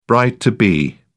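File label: "bride-to-be", English, British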